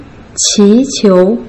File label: "qi2 qiu2", Putonghua